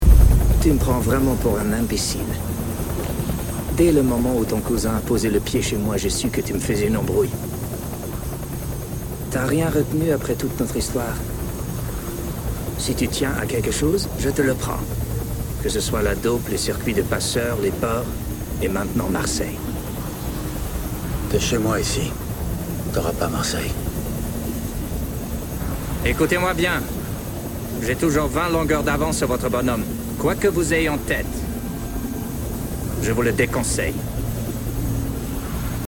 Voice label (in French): voices doublage francais